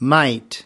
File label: "might", us